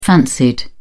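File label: "fancied", British English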